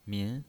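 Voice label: "min", pinyin voice, Mandarin Chinese, second tone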